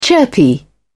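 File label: "chirpy", English, British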